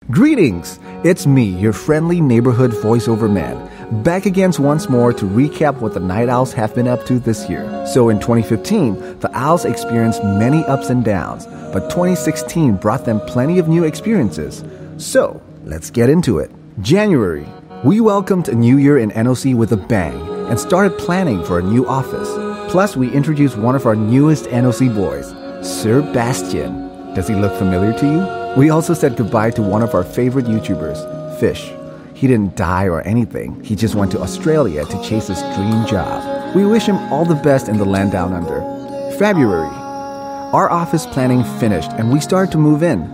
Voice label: Asian English; A Youtubers Year